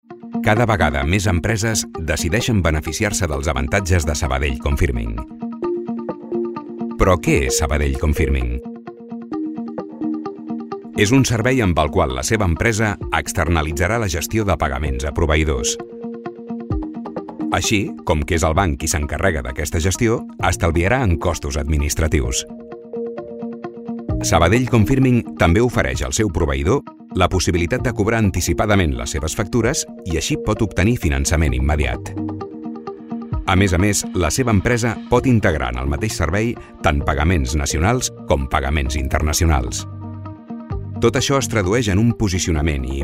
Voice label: male catalan bank comercial; ideasonora